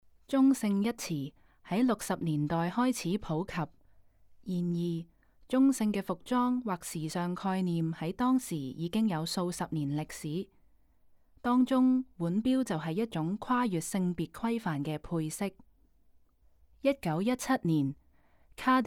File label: Cantonese, audio guide; cartier and women